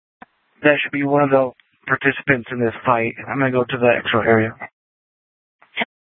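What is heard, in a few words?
Sheriff, Parks, Sheriff radio scanner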